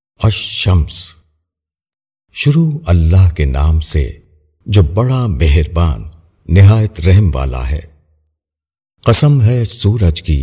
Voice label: URDU TARJUMA E QURAN; Inzaar; AL SHAMS

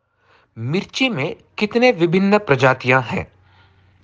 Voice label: hindi

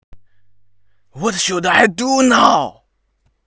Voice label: emotion

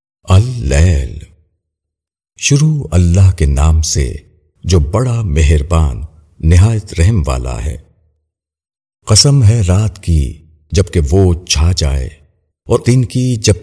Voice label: AL LAIL, URDU TARJUMA E QURAN; Inzaar

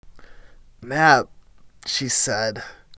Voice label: disgust; emov db

